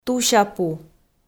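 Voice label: "тусяпу", Komi, female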